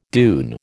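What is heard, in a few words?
"dune", American English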